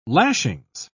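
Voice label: "lashings", British English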